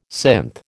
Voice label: "synth", American